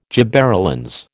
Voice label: "gibberellins", American